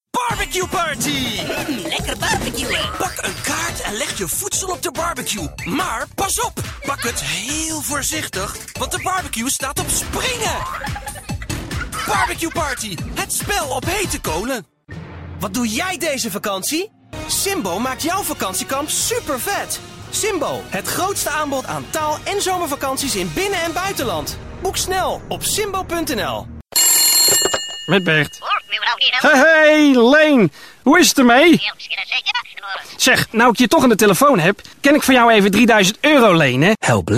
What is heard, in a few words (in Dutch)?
stemdemo commercials